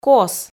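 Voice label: "кос", Komi, female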